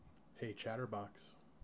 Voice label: hey chatterbox, en-us